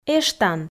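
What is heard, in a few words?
"эштан", Komi, female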